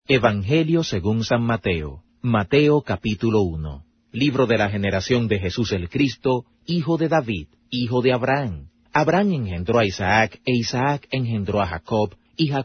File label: spanish; new testament psalms and proverbs; spa spanish audio bible